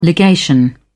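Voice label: "legation", British English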